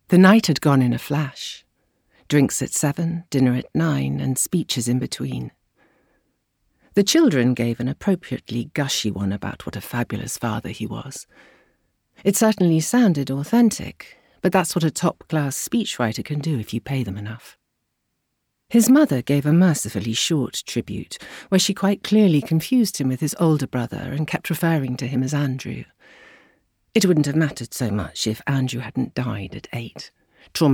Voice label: Audiobook, Warm, sultry, velvety, smooth

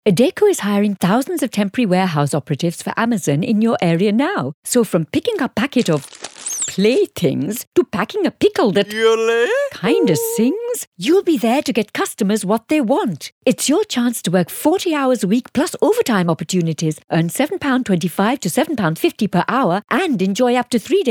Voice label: Recruitment Asian; Adecco

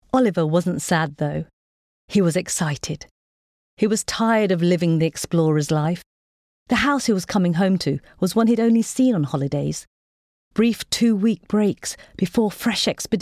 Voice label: audiobook

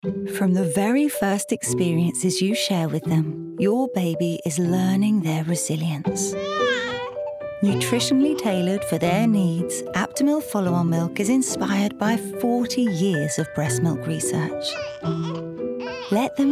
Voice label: Warm natural aspirational; Aptamil

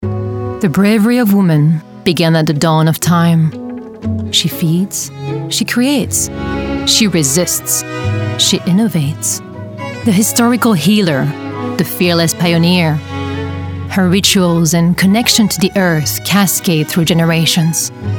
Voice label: International, Bold, Timeless, Inspiring; Ancient Brave

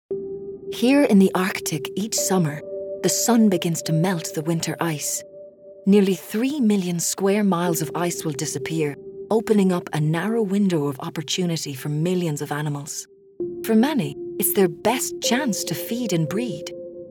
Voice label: Corporate Reel

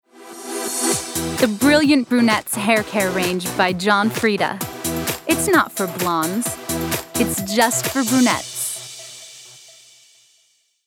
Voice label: VO Reel; Brilliant Brunettes

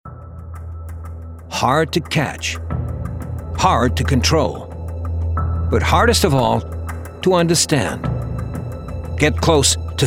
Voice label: Crime Promo

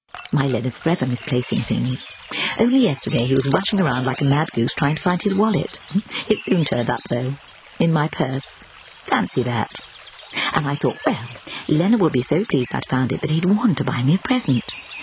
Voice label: Commercial Compilation

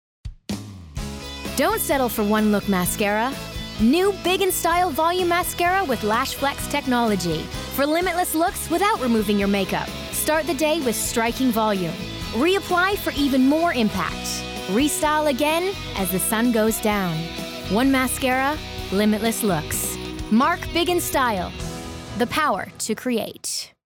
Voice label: COMMERCIAL; Avon

